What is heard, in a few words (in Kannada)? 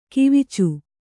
"ಕವಿಚು", Kannada